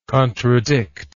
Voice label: "contradictor", English